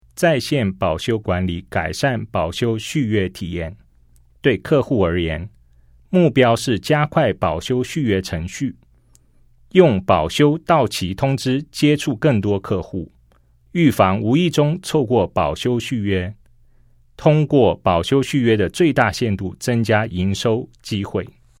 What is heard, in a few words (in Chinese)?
CHINESE